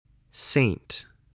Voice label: "saint", English spelling

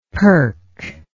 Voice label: "perc", English

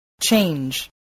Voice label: "change", English, voice

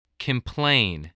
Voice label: "complain", english

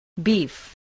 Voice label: "beef", English

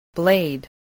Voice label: "blade", spelling

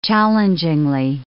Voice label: "challengingly", English

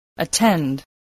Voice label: "attend", english

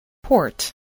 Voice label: "port", English

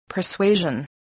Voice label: "persuasion", voice, English

